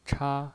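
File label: "cha", Mandarin Chinese, first tone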